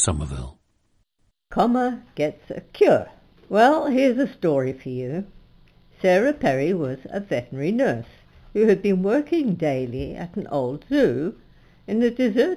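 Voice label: zimbabwe, International Dialects of English Archive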